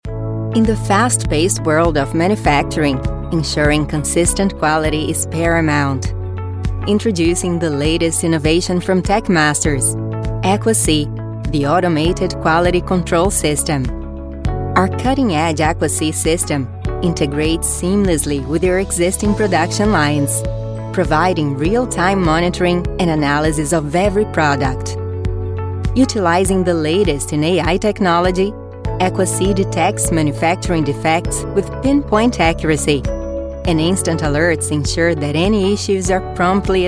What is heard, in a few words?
Brazilian voice English